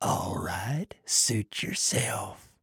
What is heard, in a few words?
trader male neutral quest declined